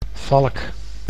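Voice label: "valk", Dutch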